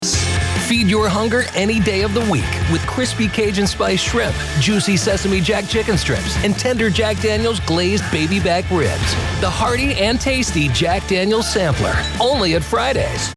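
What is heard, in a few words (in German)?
Radio Werbespots Jugendfrei, English US; NATIVE PROFISPRECHER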